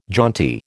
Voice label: "jaunty", American